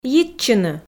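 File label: "йитчыны", Komi, female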